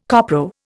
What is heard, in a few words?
"copro", Indian